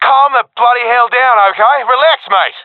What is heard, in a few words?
sees friend killed, professional epic; vo; Counter Strike Model Pack; CS:GO